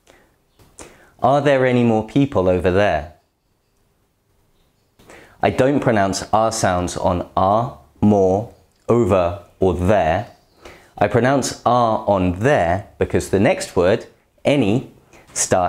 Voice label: r british english